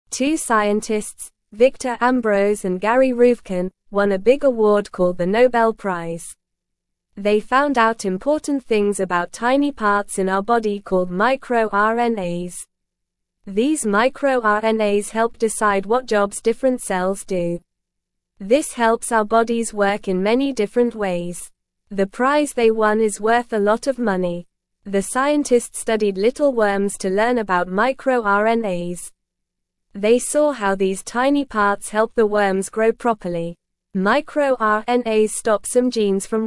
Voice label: English Beginner NORMAL Reading; Scientists Win Nobel Prize for Discovering MicroRNAs